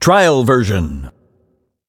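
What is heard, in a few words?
narration demo version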